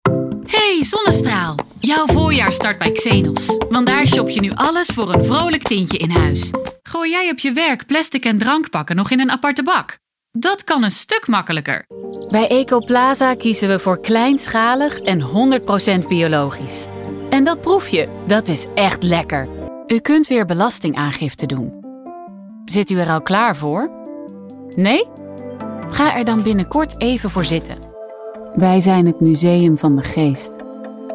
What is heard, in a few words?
Dutch Reel, voice-over